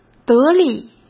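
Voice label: "得力", Mandarin Chinese; hsk; chinese flashcards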